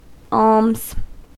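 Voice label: "alms", US English; pronunciations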